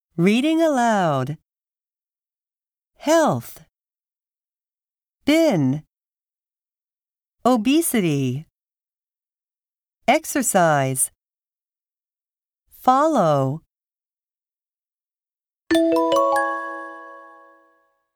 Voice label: Reading Aloud, eigo